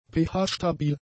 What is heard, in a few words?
"pH-stabil", German; adjectives